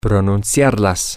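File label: Spanish, to pronounce it, male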